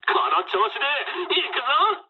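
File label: player killed enemy mood med, speech japanese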